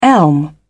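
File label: "elm", British English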